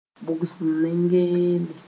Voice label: "bʋgs-n-geele", Moore; figure out

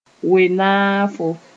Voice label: "we-naafo", moore

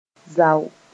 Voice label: "zao", moore

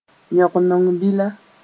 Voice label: "yõgneg bila", moore

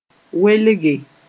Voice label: "welge", moore

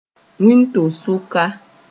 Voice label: "wĩnto-sʋka", moore

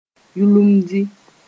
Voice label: "yulumdi", moore

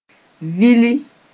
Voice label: "vili", moore

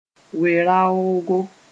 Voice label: "weo-raoogo", moore

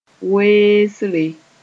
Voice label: "weesre", moore

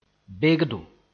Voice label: "begdo", moore; boot

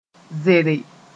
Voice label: "zere", moore

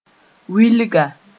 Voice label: "wilga", Moore

